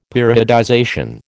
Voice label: "periodization", American